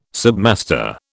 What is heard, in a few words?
"submaster", British